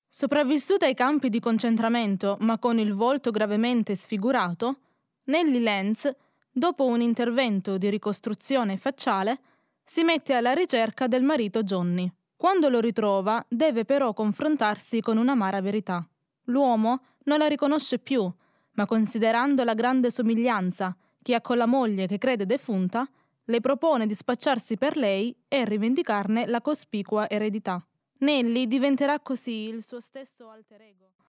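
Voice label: Italian, female, voice over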